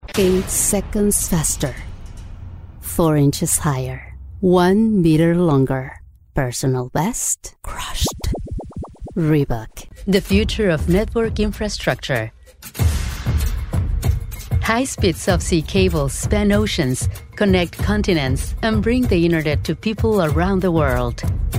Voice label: commercial, English